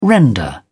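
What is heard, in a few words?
"render", English, British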